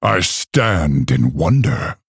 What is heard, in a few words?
Male Orc Wow